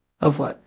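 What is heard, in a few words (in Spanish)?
Voice Acting, es, female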